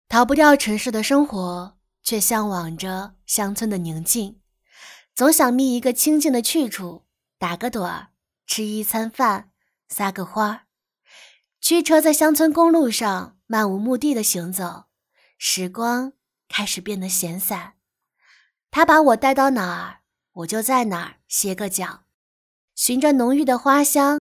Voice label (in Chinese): 素人 甜美 悠闲 景点宣传